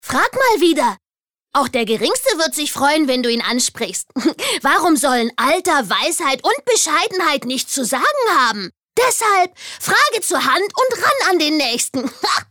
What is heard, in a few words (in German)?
Werbung Frech